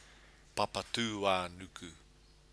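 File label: "papatuanuku", Māori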